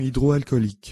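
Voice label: "solution hydroalcoolique" French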